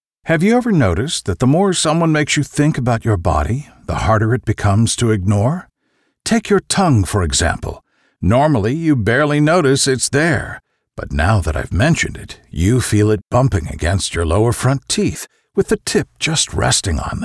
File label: commercial; Dove